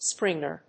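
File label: "springer", English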